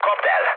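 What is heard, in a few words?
attack position, mood med, Hungarian; War Thunder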